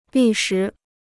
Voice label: "历时", Chinese

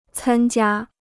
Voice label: "参加", Chinese

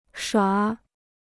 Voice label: "耍", Chinese

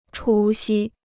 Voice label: "除夕", Chinese

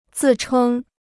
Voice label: "自称", Chinese

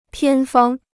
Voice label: "偏方", Chinese

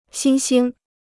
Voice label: "新兴", Chinese

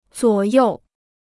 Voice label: "左右", Chinese

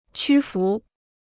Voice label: "屈服", Chinese

